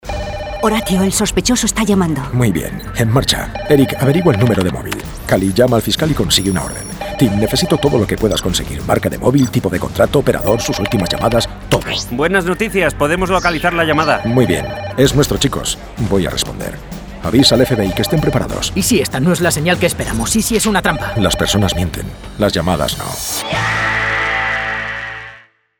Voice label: spanish impersonations

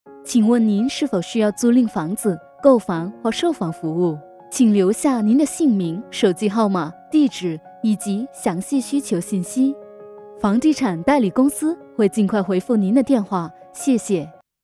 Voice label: chinese mandarin ivr

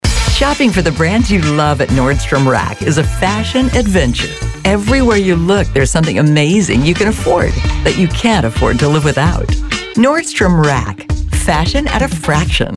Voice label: english american others

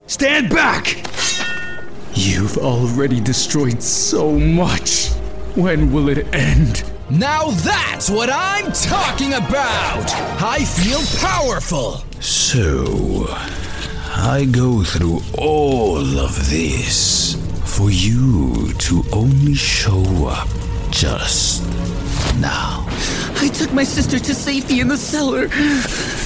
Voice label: english american animation

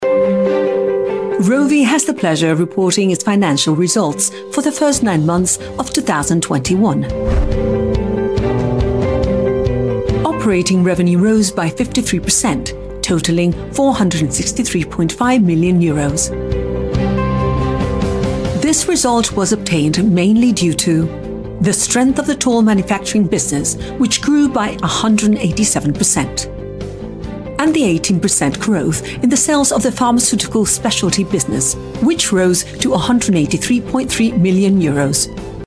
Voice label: english british explainer videos

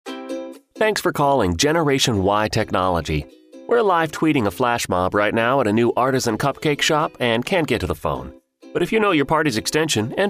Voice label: english american ivr

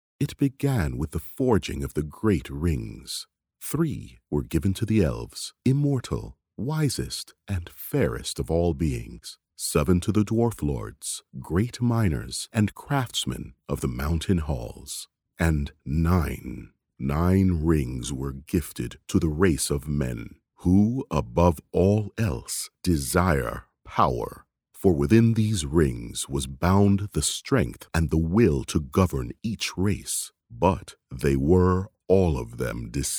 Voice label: english canadian movie trailers

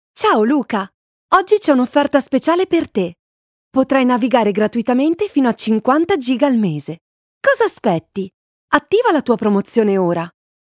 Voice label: italian promos

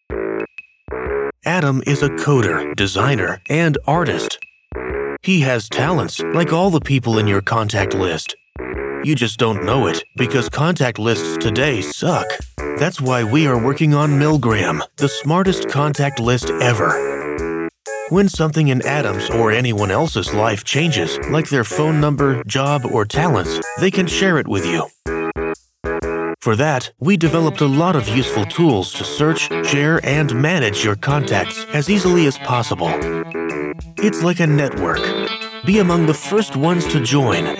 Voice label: english american corporate videos

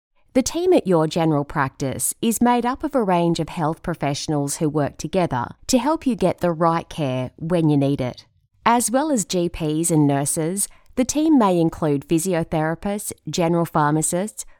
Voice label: english australian e-learning

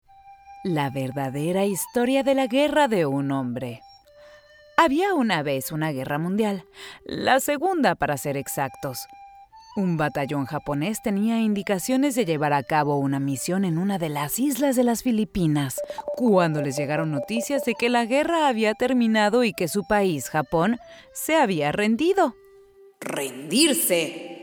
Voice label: spanish mexican podcasts

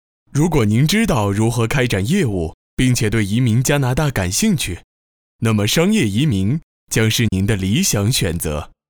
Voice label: chinese mandarin e-learning

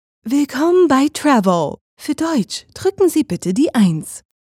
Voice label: german ivr

